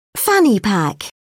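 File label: "fanny pack", British English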